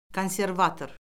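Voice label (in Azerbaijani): "konservator", Azerbaijani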